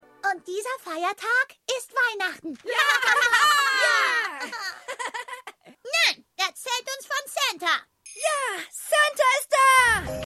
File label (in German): Maedchen Kinder Junge